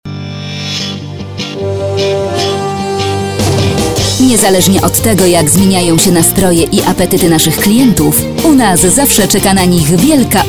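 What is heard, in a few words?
Polish, female, commercial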